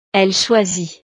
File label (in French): "elle choisit", frances